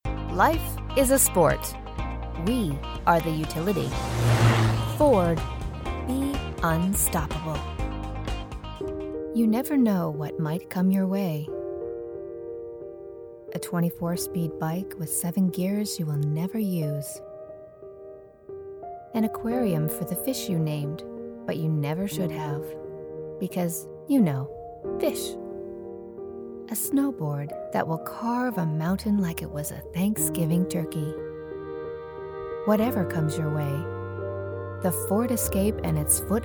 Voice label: Commercial